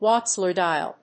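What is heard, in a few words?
"water slide", English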